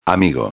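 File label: "amigo", Spanish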